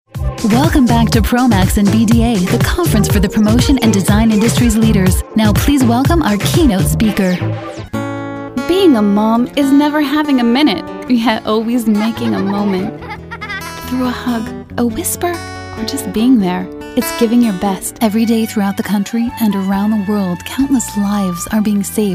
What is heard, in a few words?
Narration Showreel